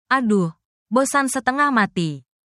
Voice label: indonesia, negative phrases